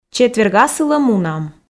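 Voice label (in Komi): четверг асылӧ мунам, Komi, female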